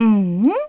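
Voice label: Vox, Korean; Cassandra; kingsraid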